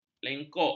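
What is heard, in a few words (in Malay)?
"lengkok", Malay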